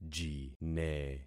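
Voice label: "jine", concatenated syllables; Affixing experiment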